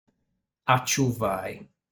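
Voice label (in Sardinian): "Aciuvai", Sardinian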